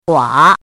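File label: "guǎ", Chinese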